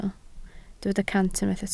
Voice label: "cant", Welsh

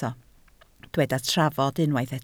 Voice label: "trafod", Welsh

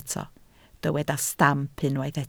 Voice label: "stamp", Welsh

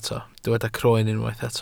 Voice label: "croen", welsh

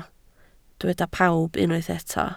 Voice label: "pawb", Welsh

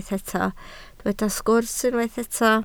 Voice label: "sgwrs", Welsh

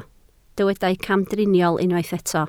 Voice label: "camdriniol", Welsh

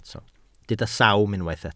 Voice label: "sawm", welsh

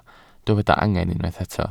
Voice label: "angen", Welsh

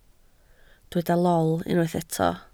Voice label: "lol", Welsh

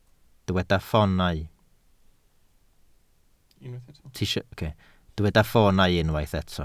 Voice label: "ffoonau", Welsh

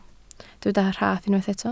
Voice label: "rhaff", Welsh

